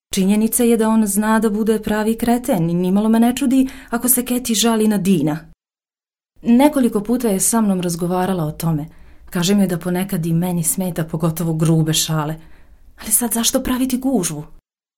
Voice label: BOSNIAN Female

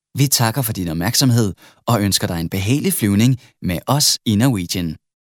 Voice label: DANISH Male

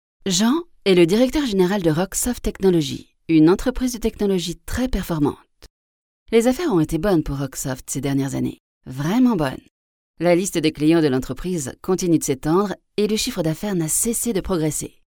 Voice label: French Female

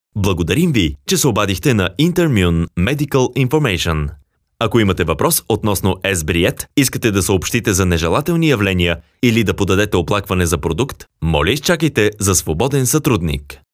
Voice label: BULGARIAN Male

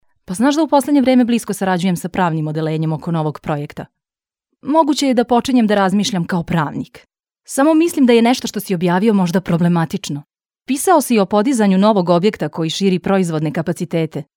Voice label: Serbian Female

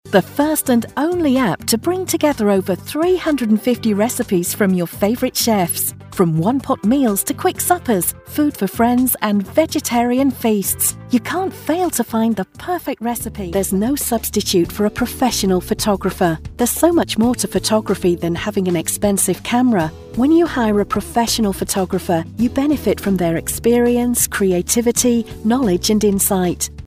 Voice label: ENGLISH (UK) Female

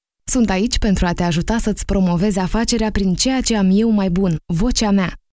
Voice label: Romanian Female

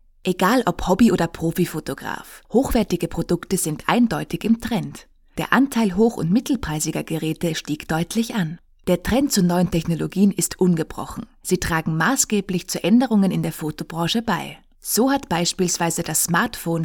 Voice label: GERMAN (Austria) Female

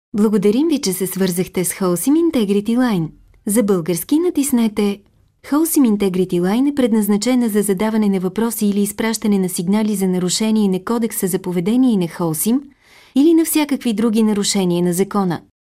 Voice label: BULGARIAN Female

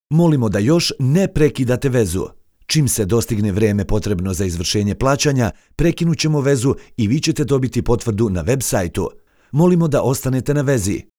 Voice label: Serbian Male

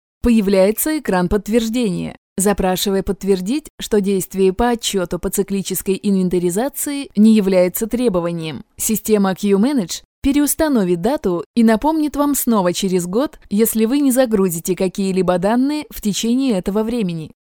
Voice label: Russian Female

